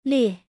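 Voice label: "លៀស", Khmer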